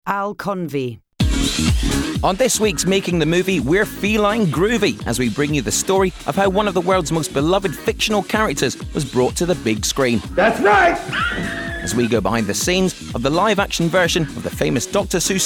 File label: Narrative and Documentary